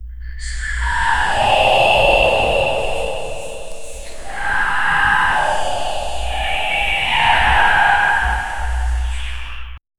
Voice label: whispering cult voices, occult